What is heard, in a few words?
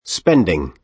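"spending", American English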